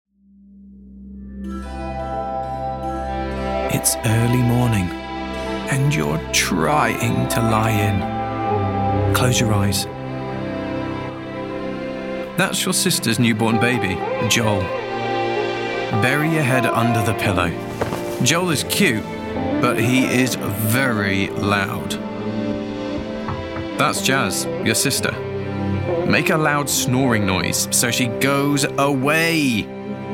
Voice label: Comforting Narration